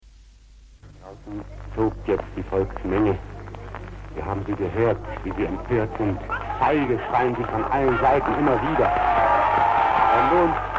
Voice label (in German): reportage; verhaftung tschech polizisten in olbersdorf; 1938